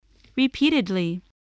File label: "repeatedly", English